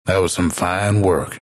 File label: announcer victory; dlc bastion